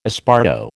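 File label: "esparto", American English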